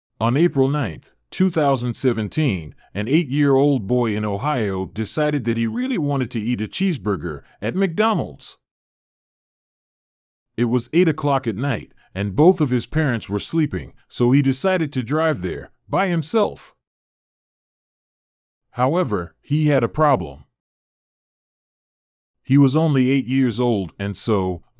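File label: American; boy drives mcdonalds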